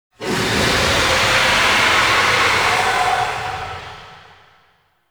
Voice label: Boss Vocal Spider Summon Stinger; Enemies; Warframe; Oraxia

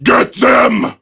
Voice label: Enemy Spotted Prime Grineer Male; Tau; Battle Barks

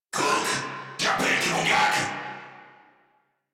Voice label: Surprised Crewmen, en; Warframe; Corpus Crewmen; Crewman A; Battle Barks

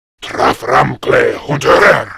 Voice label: Attack Grineer Male; Warframe; Battle Barks

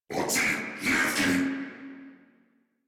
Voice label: Player Spotted, Battle Barks

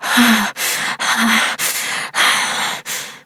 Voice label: Operator Voice

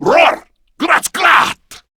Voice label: Surprised, Male; Warframe; Grineer Male B; Battle Barks

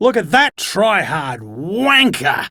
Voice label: Enemy Spotted Chipper; Dialog; Barks; Battle; Warframe

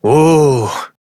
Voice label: Horse Stop Walk Adult; Duviri

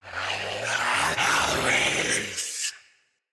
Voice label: Attack Knight Male, Battle; Barks; Dialog; Mendicant

